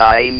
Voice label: "TIME", votrax; VIDEO GAMES